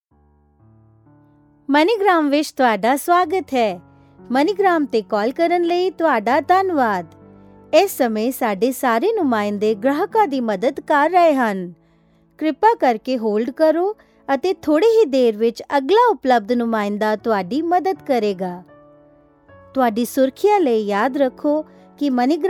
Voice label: Punjabi IVR